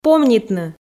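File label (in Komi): "помнитны", Komi, female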